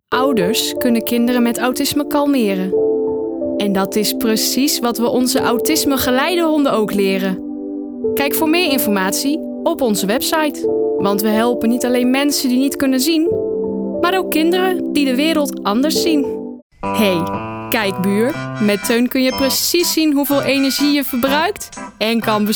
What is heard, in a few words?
commercial